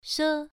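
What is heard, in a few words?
"she" Mandarin Chinese, first tone, pronunciation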